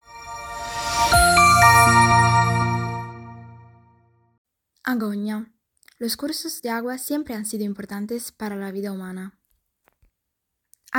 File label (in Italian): spagnolo; Agogna